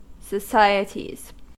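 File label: "societies", American English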